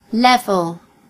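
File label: level, Basque; gcompris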